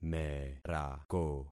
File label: "merako", concat syllables; Affixing experiment